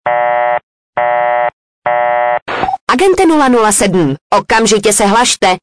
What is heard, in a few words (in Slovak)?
sms hlásky cz